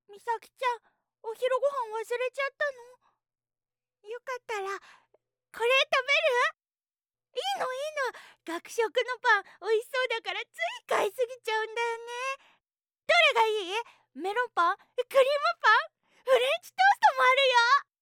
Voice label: 食いしん坊 マスコット 女子

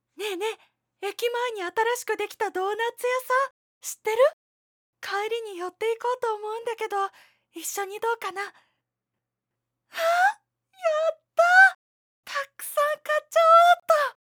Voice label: 流行りが気になる女子高生

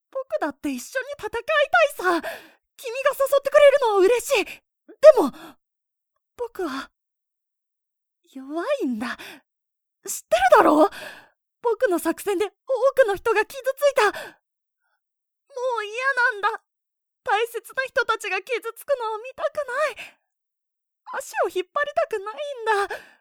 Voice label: ボイスサンプル男性 臆病な少年

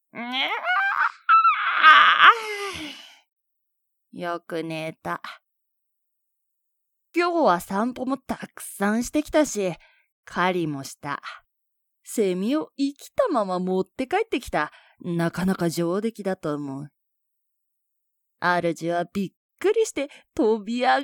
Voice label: ボイスサンプル のんびり猫

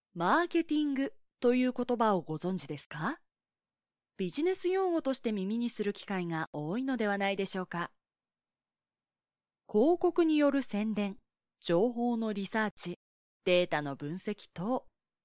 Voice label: ナレーションサンプル マーケティング

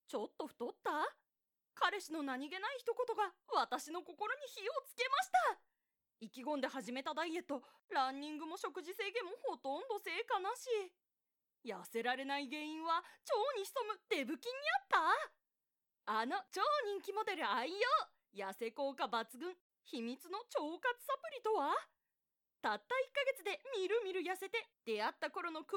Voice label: 広告サンプル ダイエットサプリ

